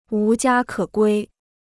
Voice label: "无家可归", Chinese